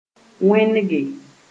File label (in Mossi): "wẽnege", Moore